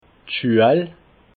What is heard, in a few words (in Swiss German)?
"schuel", alsace